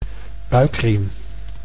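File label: "buikriem", Dutch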